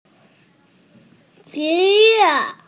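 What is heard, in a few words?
"shi2yue4", Mandarin Chinese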